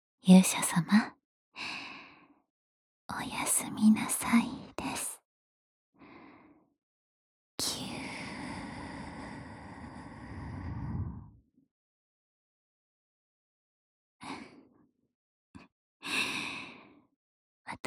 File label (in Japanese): おやすみボイス